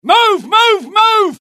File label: alert, dialogue UK; mohaa